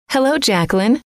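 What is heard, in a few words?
"hello", female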